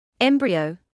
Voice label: "embryo", medical english